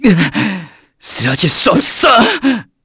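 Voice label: Vox Dead, Korean; Theo; kings raid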